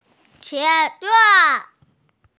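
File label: "qie2zi5", Mandarin Chinese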